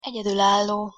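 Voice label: "egyedulallo", Hungarian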